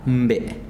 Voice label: Zapoteco luna; miahuatec

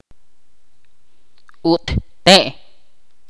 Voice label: "palo", Ch'orti'

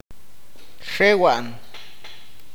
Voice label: "respirar", awakateko

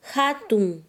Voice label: "grande", Quechua Chanka

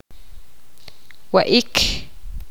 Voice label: poqomam comer

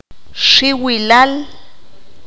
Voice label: "Susto", qanjobal

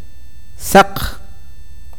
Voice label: blanco, awakateko

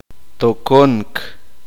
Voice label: uspanteko cazar

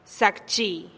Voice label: "zakchi" buenas tardes, teotitlan

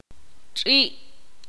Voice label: "perro", ixil

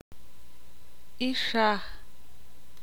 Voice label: "hoja", ixil

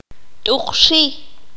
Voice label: "saltar", Q'anjob'al

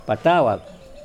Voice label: Nawat palabras ancho; pipil

